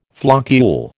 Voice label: "floccule", American